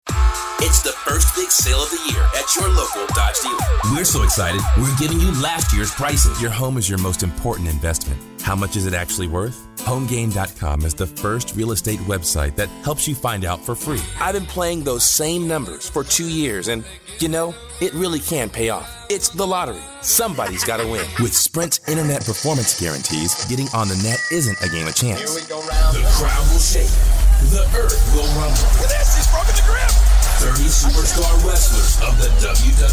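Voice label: English US General; GoLocalise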